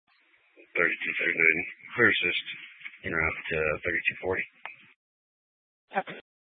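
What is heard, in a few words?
Police Dispatch, Scanner audio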